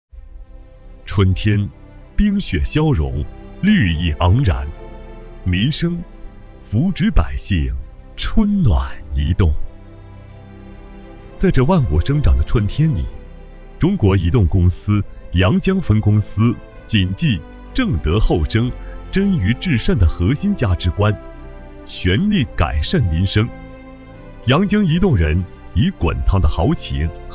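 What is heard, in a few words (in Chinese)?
中年 男 真人 商业 配音; 真人商业配音 阳江移动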